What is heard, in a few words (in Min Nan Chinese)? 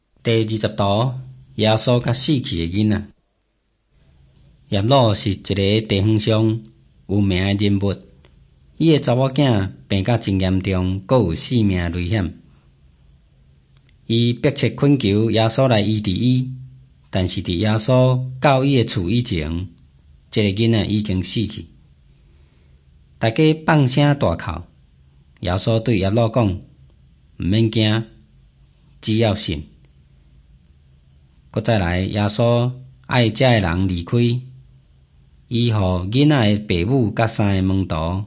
taiwanese, drama; hokkien; taiwanese min nan todays taiwanese version nt drama; jesus teacher and healer